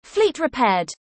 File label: Alert Fleet Repaired, en